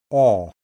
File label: open-mid back rounded, IPA sounds